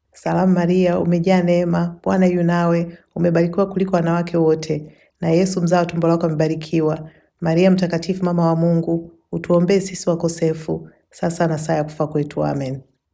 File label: hail mary swahili